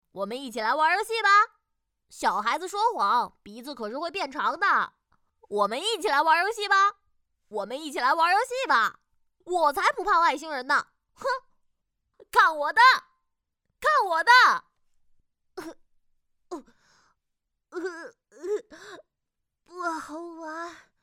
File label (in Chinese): 淘气男孩; 淘气男孩乌索普